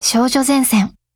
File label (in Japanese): TITLECALL JP